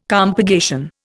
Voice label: "compurgation", Indian